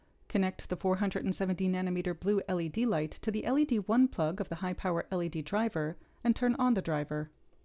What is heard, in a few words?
Gong Voiceover